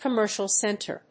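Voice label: "commercial center", English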